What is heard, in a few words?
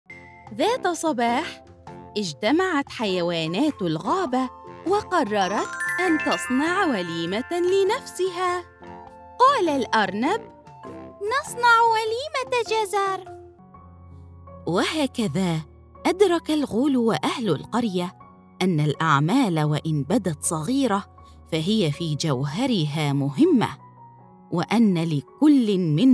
children story